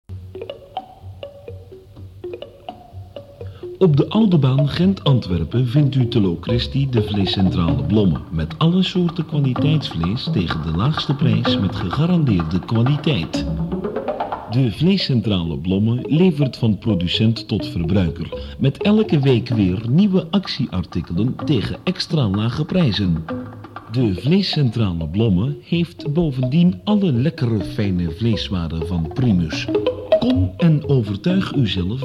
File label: commercial